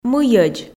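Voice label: "мыйӧдз", Komi, female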